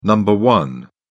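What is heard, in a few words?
"number one", British English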